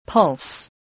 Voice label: "pulse", English